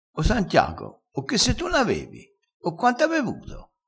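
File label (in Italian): "setona", vocabolario dialettale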